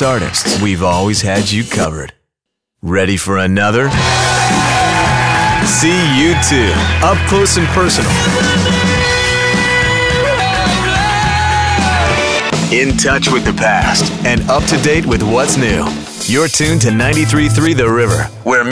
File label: radio; imaging